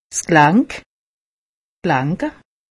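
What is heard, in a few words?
"gelank", Alsatian; medecine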